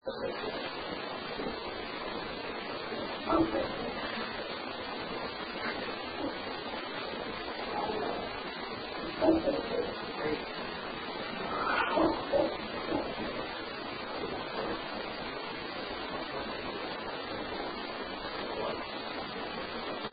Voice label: Male Voice HEY WOW; Case 021 Zepp Home; Master Bedroom